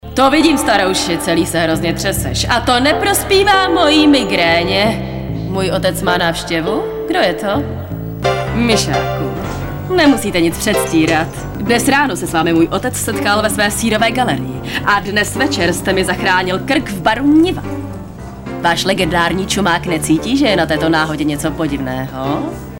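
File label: female Czech drama mix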